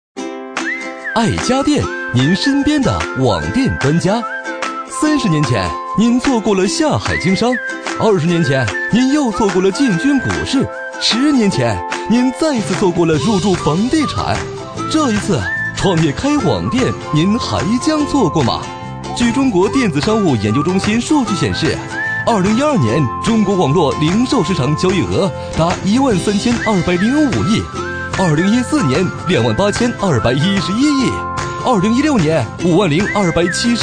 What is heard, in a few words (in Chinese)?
男 青春活力; 飞碟说